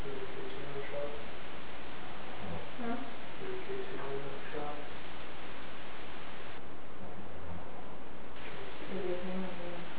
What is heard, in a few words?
deep voice